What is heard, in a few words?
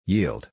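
"yield", English pronunciation; long i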